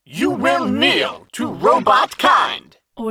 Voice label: robot vocal you will kneel to robotkind male computer voice